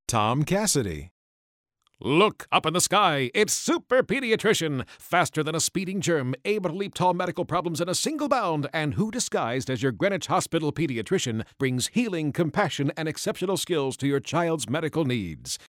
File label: SUPERHERO